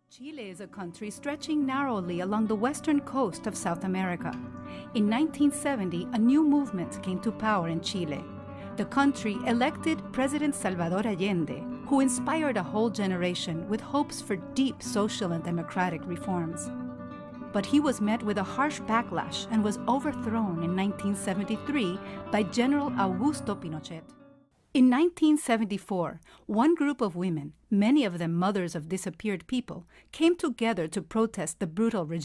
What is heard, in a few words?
Accented Voiceover; Facing History